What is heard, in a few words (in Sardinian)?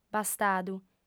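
"bastadu", female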